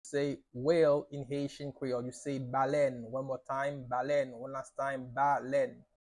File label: "Balen" Haitian Creole pronunciation by a native Haitian Creole teacher; How to say Whale in Haitian Creole